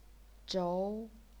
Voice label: "zhou2", chinese pinyin